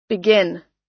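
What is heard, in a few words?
"begin", US English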